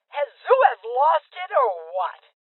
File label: Female Old; rebel cops 2019 gamerip